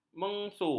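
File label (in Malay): "mengsu", Malay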